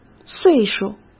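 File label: "岁数" Mandarin Chinese; chinese-flashcards; hsk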